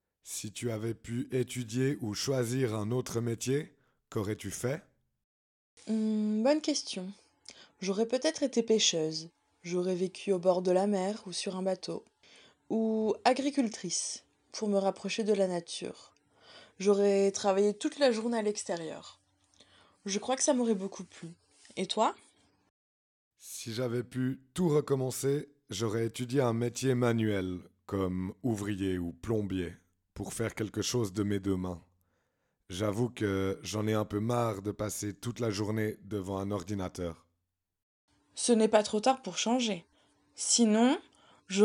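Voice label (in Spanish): dialogo, francais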